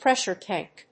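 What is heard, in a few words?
"pressure tank", English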